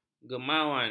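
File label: "gemawan", Malay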